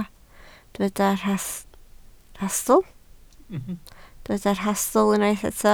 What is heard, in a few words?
"rhastl", Welsh